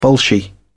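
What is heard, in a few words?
"bolshy", British English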